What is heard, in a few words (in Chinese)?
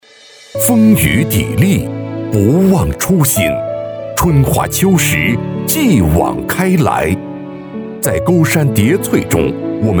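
男【党政宣传片 浑厚有力】; 检察院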